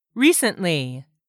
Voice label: "recently", english; 3000 words

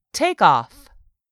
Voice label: "takeoff", English word; 3000 words

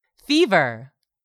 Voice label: "FEVER", english; 3000 words

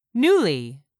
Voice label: "NEWLY", English; 3000 words

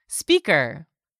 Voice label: "speaker", English; 3000 words

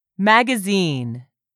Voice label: "magazine", English; 3000 words

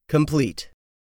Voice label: "complete", english; 3000 words

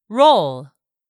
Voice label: "ROLE", english; 3000 words

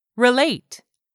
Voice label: "RELATE", english; 3000 words

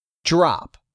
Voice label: "DROP", English; 3000 words

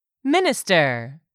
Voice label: "MINISTER", english; 3000 words

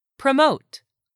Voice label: "PROMOTE", English; 3000 words

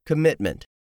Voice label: "COMMITMENT", english; 3000 words

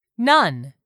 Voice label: "none", English; 3000 words

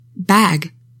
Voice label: "bag", english; 3000 words

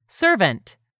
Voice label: "SERVANT", english; 3000 words

